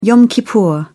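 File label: "yom kippur", British English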